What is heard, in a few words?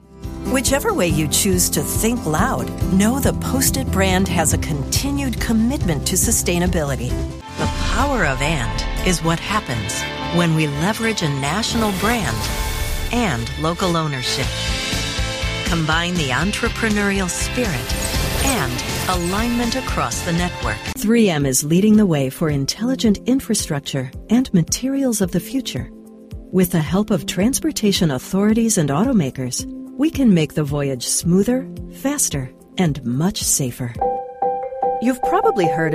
Narration